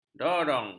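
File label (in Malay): "dorong", Malay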